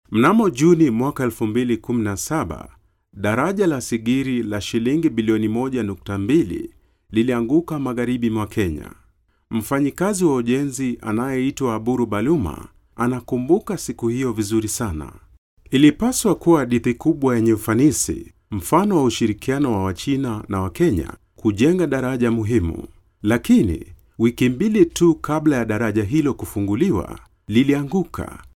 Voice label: Swahili M